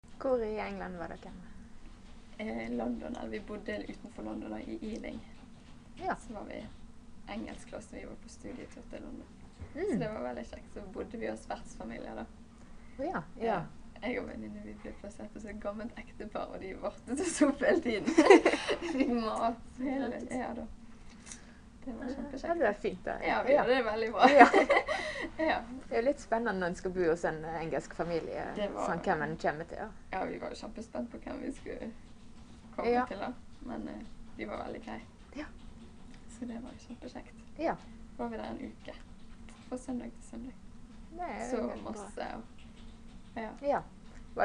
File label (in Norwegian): Arna, dialekt